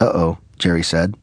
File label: "uh oh", Voice